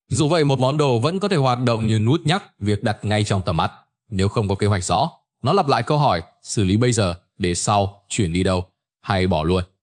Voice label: narration